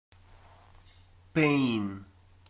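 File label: "pain", British English; PictureDictionary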